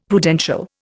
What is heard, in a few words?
"Prudential", Indian; Dictionary